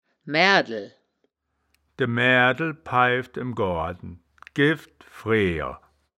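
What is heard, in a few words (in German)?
"maeaerdel", Wittlicher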